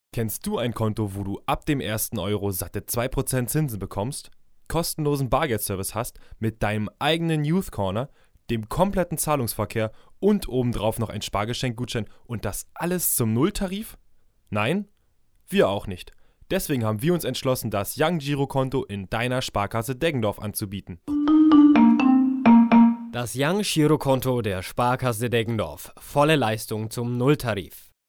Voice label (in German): Werbung Sparkasse